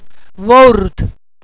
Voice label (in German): "wourd", walser